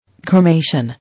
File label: "Cremation" English